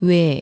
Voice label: "웨", korean; vowel

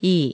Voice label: "이", korean; vowel

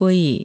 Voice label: "의", korean; vowel

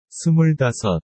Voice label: "스물다섯", korean

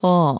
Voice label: "어", korean; vowel

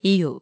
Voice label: "이유", korean; vowel

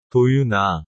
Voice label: "도윤아", korean

